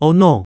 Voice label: "阿腦", 客語

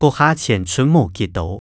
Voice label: 屋下錢伸無幾多, 客語

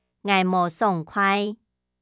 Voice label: 𠊎無爽快, 客語

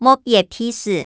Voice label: "莫挖鼻屎", 客語

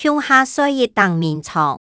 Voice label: 共下睡一頂眠床, 客語

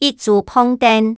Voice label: 一組膨凳, 客語

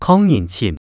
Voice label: 囥人尋, 客語

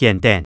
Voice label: 弇等, 客語

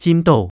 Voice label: 浸著, 客語

